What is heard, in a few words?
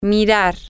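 Spanish, to watch, female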